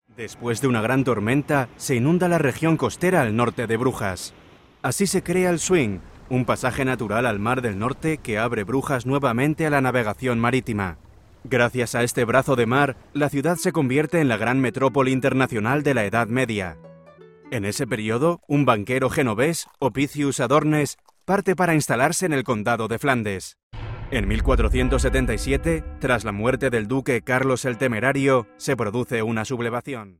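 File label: Documentary 30 sec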